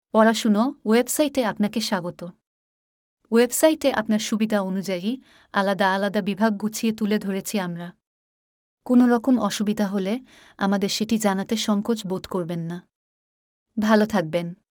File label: female welcome